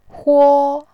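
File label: "huo", Mandarin, first tone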